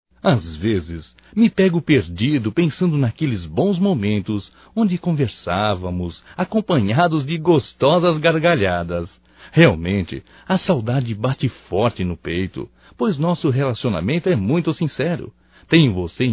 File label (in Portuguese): SAUDADES AMIGO DISTANTE (M), Só Voz; Telemensagens; Telemensagens 2017